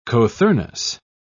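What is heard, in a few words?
"cothurnus", British English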